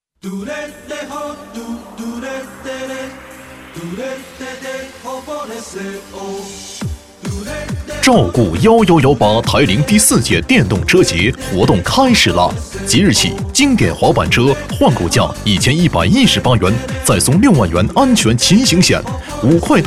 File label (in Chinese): 男 促销 电动车换购节; 台铃电动车换购节